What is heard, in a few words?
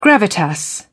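"gravitas", British English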